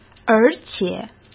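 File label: "而且", Mandarin Chinese; chinese-flashcards; hsk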